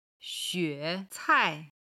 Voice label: "xue3cai4", chinese

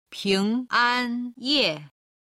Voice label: "ping an ye", Chinese

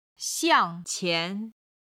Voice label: "xiang qian1", Chinese

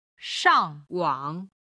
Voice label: "shang4wang3", chinese

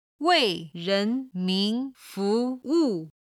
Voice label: "wei ren ming fu wu", Chinese

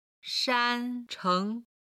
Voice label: "shan1 cheng2", Chinese

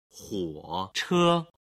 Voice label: "huo che", Chinese

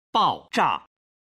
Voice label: "baozha", Chinese

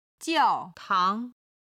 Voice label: "jiao4tang2", chinese

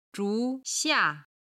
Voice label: "zhu xia", chinese

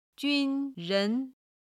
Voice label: "junren", Chinese

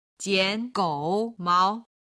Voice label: "jiangoumao", chinese

